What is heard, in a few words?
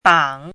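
"bang", Mandarin Chinese, third tone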